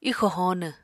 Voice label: "ixò hóonw", apatani